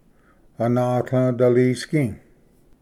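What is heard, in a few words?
"anatlvdalisgi", Cherokee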